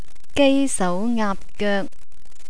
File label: "gaisauaapgoek", Cantonese